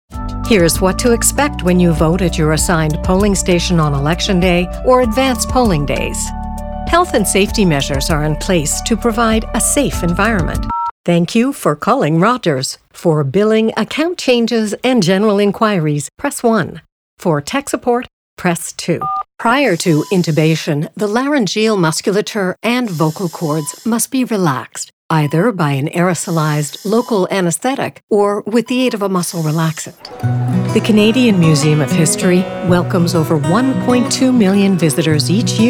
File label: Narration